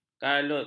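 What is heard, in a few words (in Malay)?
"kalut", Malay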